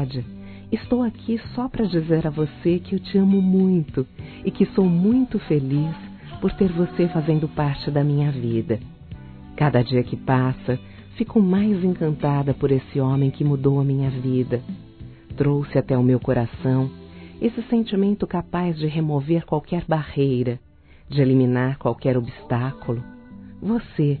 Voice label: Romantica Aniversário Namorada Feminino, Telemensagens; Românticas

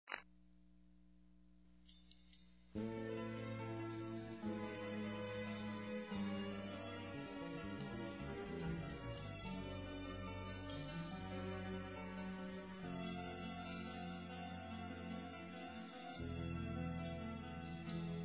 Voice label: CIÚME EXAGERADO, fem; Ciúmes; PESSOA QUE SOF; Telemensagens

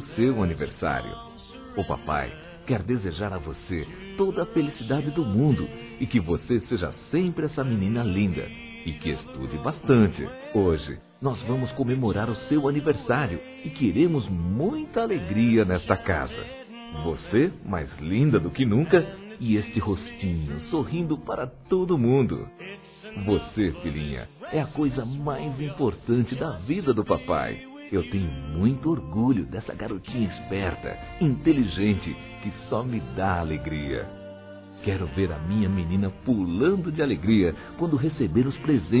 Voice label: Filha Criança Masculino

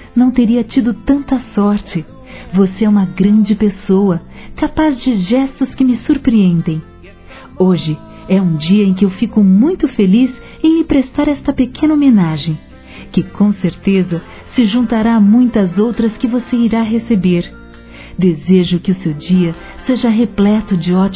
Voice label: aniv. cunhada f

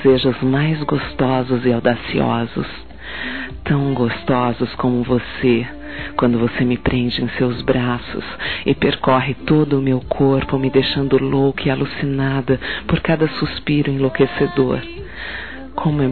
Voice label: Eu Prometo Te Amar Sem PREssa, Telemensagens Sensuais fem

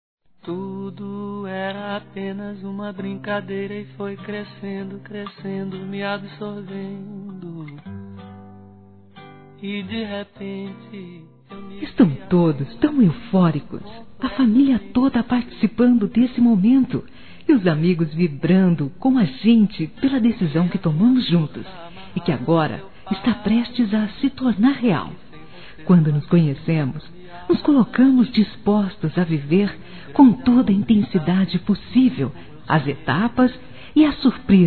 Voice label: Nosso Casamento Feminino; Telemensagens; Parabenização

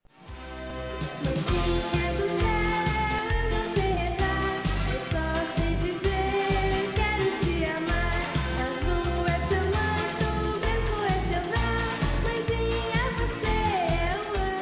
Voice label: Dia Das Mães Para Mãe Masculino, Telemensagens; Telemensagens 2017

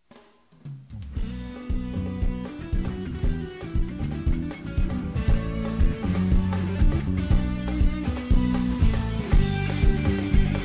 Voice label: Neutro Adolescente Feminino; Aniversário Infantil; Telemensagens

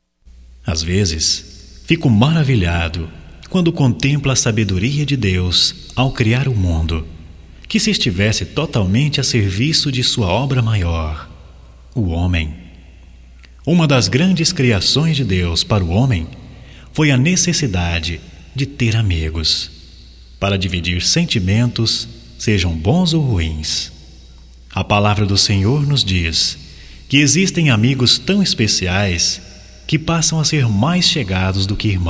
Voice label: AGRAD A AMIZADE, Só Voz, masc; Telemensagens; Telemensagens 2017

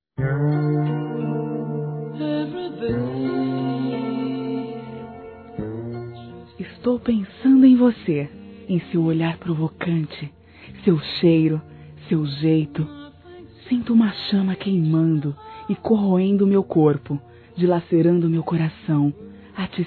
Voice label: Sensuais, fem; VEM REALIZAR MEUS DESEJOS; Telemensagens

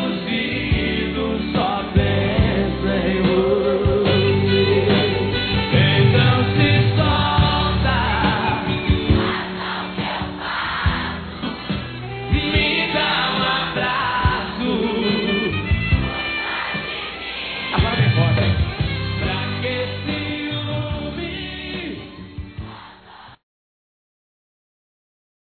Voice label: Telemensagens Amor Fem; Telemensagens 2017

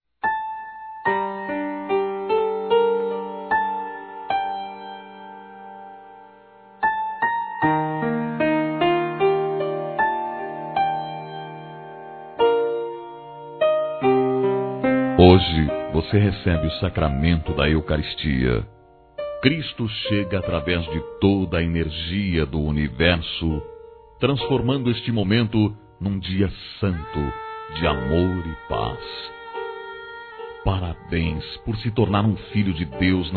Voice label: Primeira Comunhão m, Telemensagens, Gospel, masc

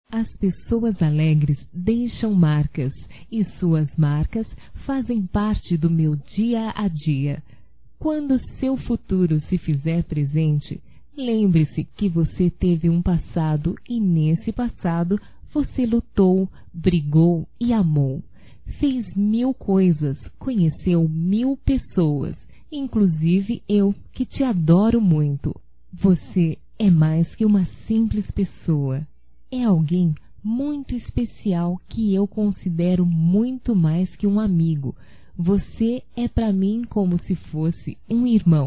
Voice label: Amizade Feminino, Telemensagens, Só Voz; Telemensagens 2017

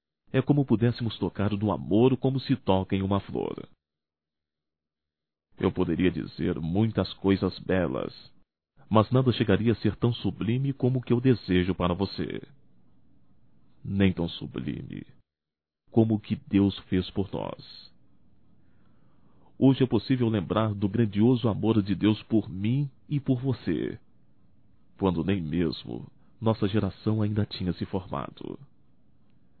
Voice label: NATAL IRMA, masc; Natal e ano novo; Telemensagens 2017

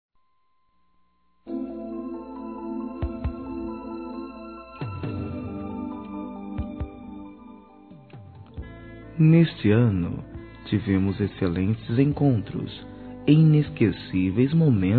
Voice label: ANO NOVO P AMIGA M; Telemensagens; Natal e Ano novo; masc

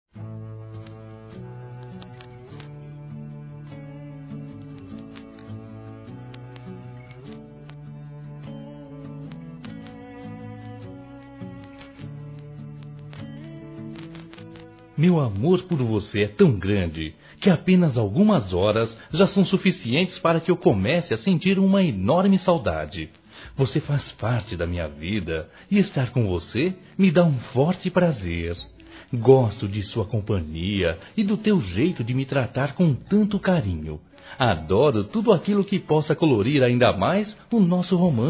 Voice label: amigo Masculino; Telemensagens; Saudades

